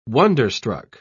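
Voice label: "wonder-struck", British English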